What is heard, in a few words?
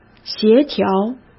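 "协调", Mandarin Chinese; chinese-flashcards; hsk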